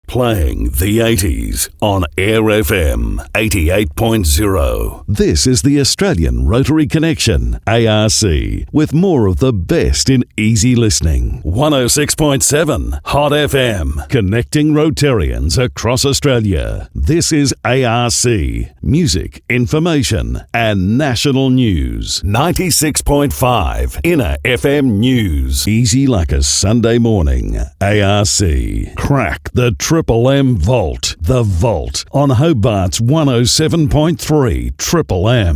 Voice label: Radio Imaging